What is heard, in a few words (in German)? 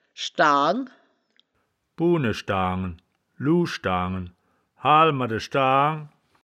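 "schdaang", wittlicher woerterbuch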